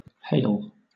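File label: "hale" British English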